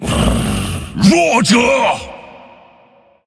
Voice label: taunt, zh; Volibear

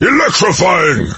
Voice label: warmonger mage taunt

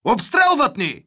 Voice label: neutral

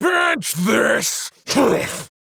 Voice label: Stonehoof Tauren, en

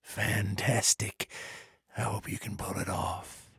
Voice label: trader male neutral quest accepted

